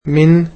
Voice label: "from", egyptian